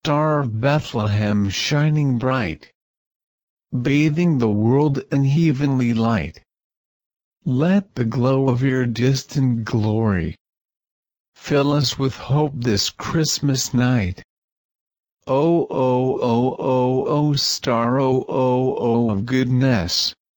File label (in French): diction hommes